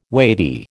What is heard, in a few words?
"weighty", American